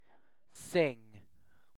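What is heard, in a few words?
"sing", British English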